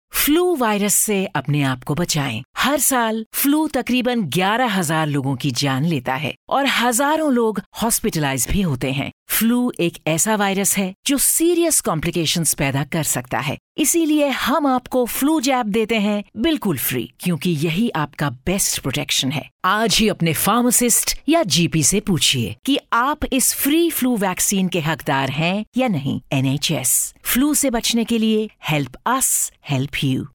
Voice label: Flu HINDI All Audiences